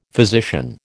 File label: "physician", American